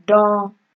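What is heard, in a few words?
"don", Nigerian Pidgin, female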